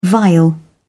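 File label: "vial", British English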